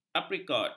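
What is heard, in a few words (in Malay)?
"aprikot", Malay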